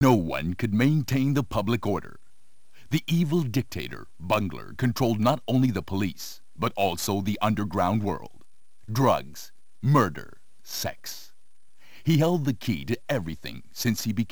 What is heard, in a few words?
Voice, Prologue; the ninja warriors; arcade